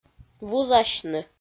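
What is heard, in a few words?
"вузасьны", Komi, female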